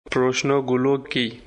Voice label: Bengali, Bangladeshi Accent; What are the questions?; Prosno gulo ki?